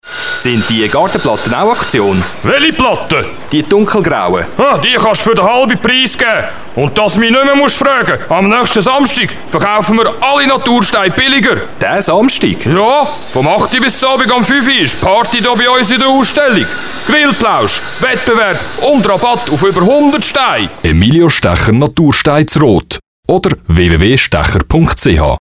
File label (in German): Radiospot; Rampenverkauf